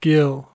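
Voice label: "gill", American English; pronunciations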